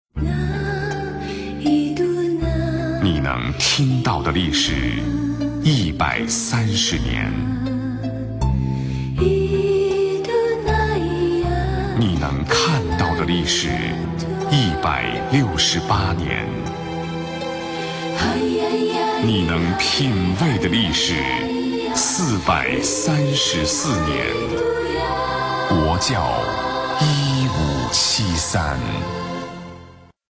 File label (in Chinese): 广告 酒类 广告 大气; 国窖1573; 孙悦斌